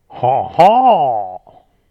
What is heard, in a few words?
french scoff affirmation